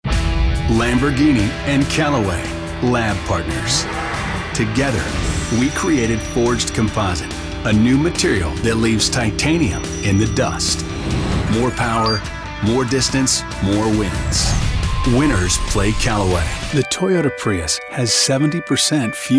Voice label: COMMERCIAL